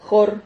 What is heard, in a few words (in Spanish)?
"jor", Silabas